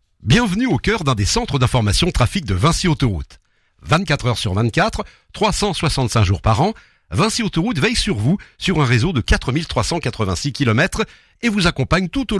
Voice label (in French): voix off visite virtuelle; vedene